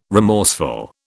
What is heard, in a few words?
"remorseful", British